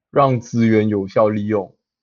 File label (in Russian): Голоса, Тайваньский